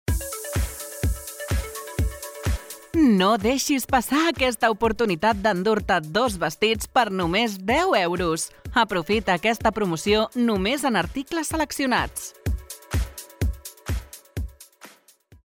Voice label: VESTIDOS CAT; HUMANA

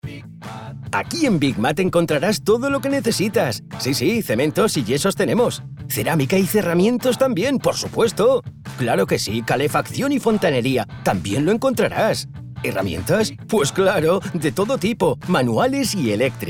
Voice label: CORPORATIVO ES; BIG MAT; MC IBIZA

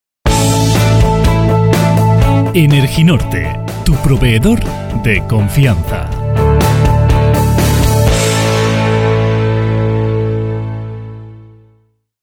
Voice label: CORPORATIVO; ENERGINORTE

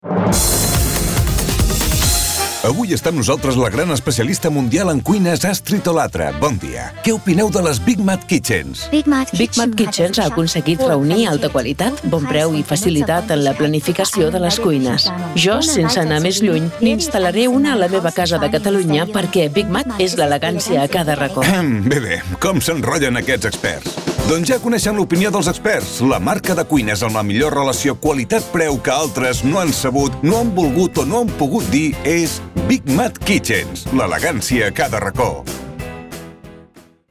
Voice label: Cuña Catalan; BIG MAT SOCIOS CATALAN; CAMPAÑA COCINAS 2024; BigMatKitchens

